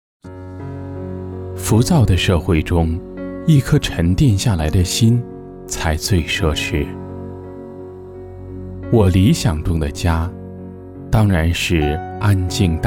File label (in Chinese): 男23 我理想中的家（温情）